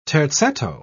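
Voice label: "terzetto", English, British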